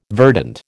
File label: "verdant", American